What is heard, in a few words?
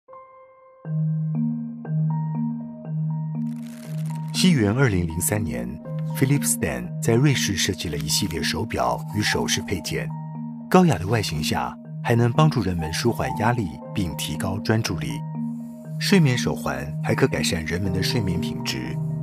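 Taiwan, male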